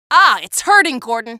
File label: strider hurting; hl2 ep1; episode 1; c17